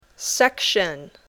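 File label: "section", English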